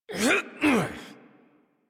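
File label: horse mount adult, English; Warframe; Duviri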